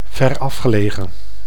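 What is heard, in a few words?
"verafgelegen", Dutch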